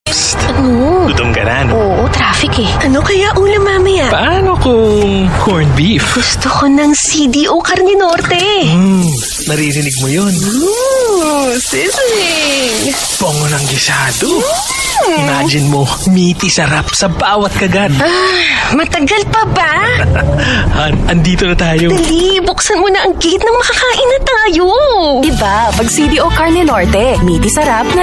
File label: Tagalog; CDO Karne Norte